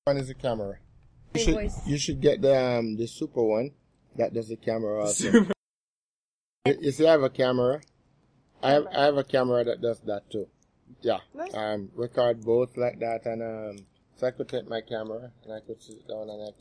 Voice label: Jamaica Male 40 s